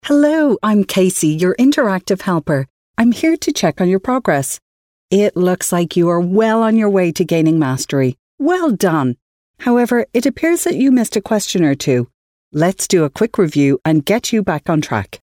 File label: Explainer Demo